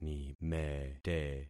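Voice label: "nimete", concatenated syllables; Affixing experiment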